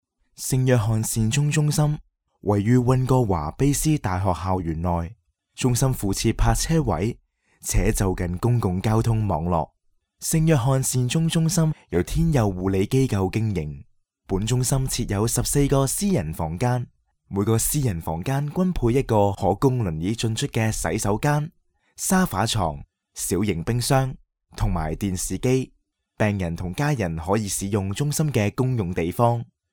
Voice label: male cantonese voice over talent narrative